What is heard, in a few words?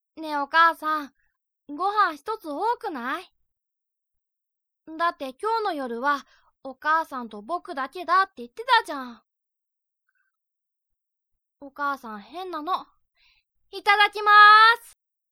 kids; ショタ。幼い子供役だと、大体はこんな声出してます。; （自企画「千本鳥居」 エキストラ台詞より）